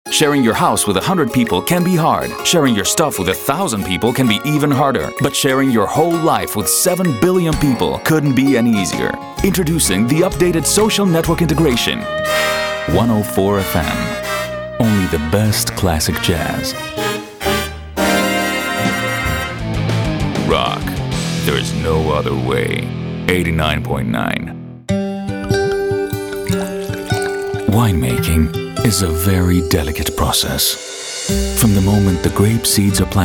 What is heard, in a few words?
Radio